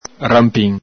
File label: "ranpin", Venetian